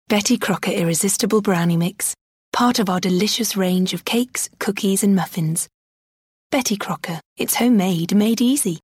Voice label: 30/40's Neutral/RP, Warm/Husky/Versatile; bettycrocker

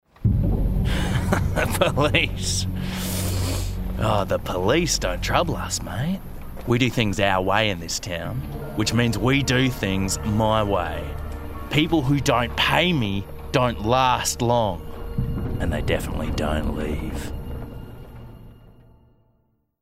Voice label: Gaming Villain; Gaming Character – Villain

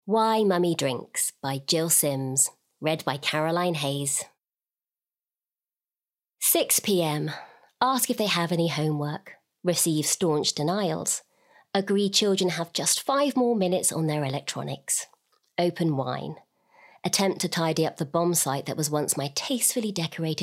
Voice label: Bookread; Why Mummy Drinks

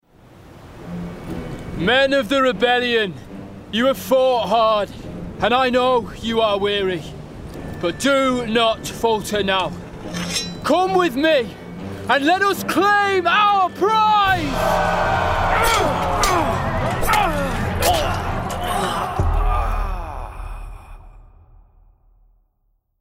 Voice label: Gaming Warrior Northern; Gaming Character – Northern Warrior

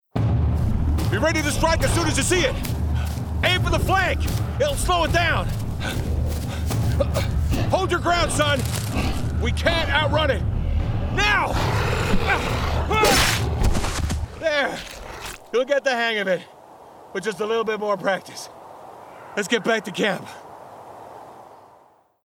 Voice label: Gaming Warrior US; Warrior (American)

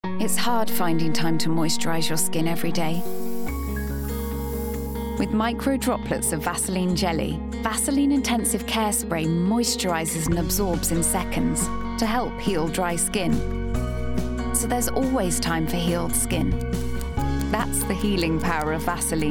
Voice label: 20/30's Neutral/RP, Compelling/Stylish/Charming; Vaseline Mix

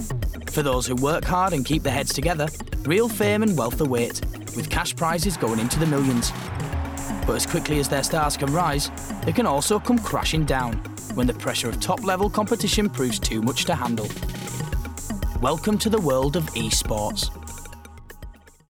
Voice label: Gaming Doc

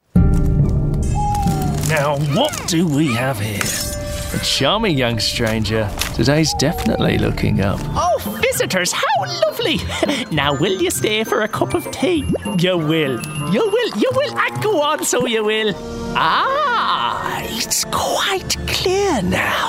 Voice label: Animation; Animation Showreel

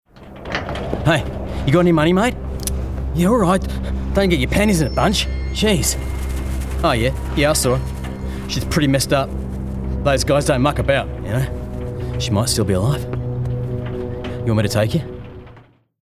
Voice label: Gaming Character Junkie Australian

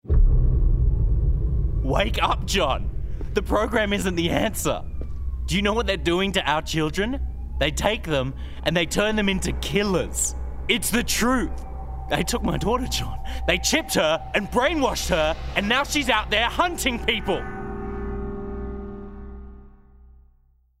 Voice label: Gaming Everyman; Gaming Character – Everyman

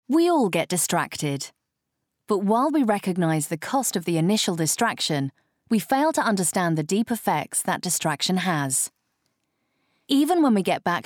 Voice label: Bookread; Wired Magazine

